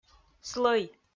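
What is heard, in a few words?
"слӧй", Komi, female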